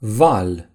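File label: "wave", Romanian; gcompris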